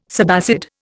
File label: "subacid", Indian